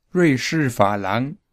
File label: "rui shi fa lang", Mandarin